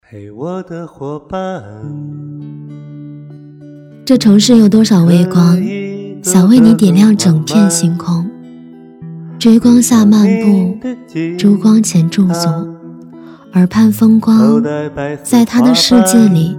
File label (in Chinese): 女-走心旁白-温馨; 微光